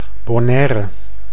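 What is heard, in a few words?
"Bonaire", Dutch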